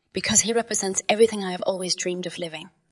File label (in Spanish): Voice Acting es